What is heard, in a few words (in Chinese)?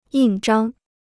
"印章", Chinese